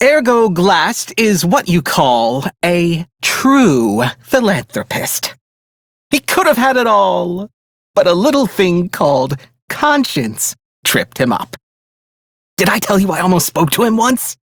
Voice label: Idle, Male; Dialog; Warframe; Railjack Crew; Perrin Sequence